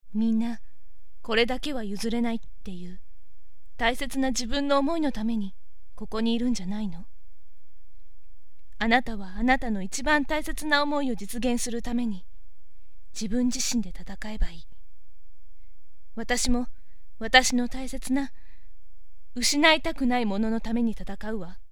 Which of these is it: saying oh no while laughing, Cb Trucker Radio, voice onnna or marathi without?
voice onnna